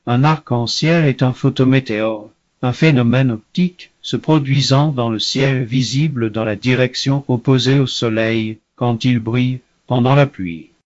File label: speaker, fr FR; piper voices